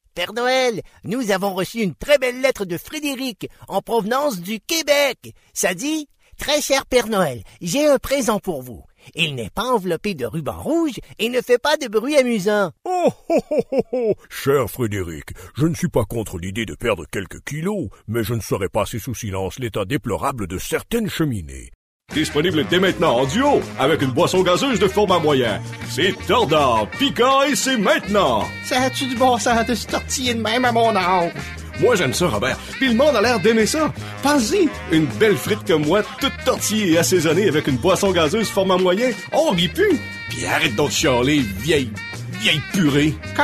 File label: french canadian animation